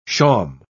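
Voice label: "shawm", British English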